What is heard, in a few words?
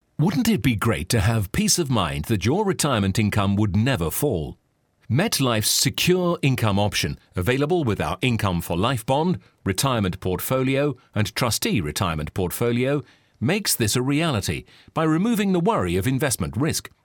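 British commercial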